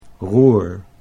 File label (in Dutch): "roer", Dutch